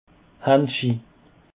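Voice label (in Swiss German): "haendschi", Alsatian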